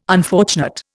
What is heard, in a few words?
"unfortunate", Indian